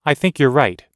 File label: everyday agreeing us